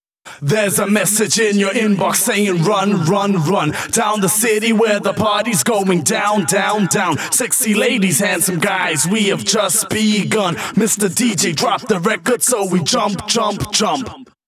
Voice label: Rap Vocals WET, 140 BPM; Vengeance Samples; Vocal-Hook Kits; Song Kit